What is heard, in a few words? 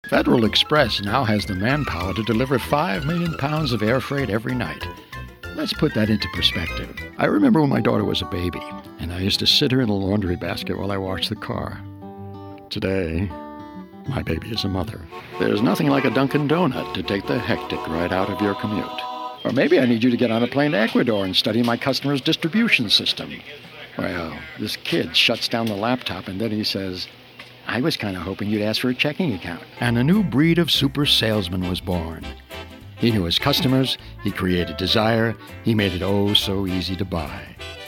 Commercial